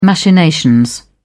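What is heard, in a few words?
"machinations", British English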